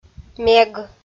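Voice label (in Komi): "мег", Komi, female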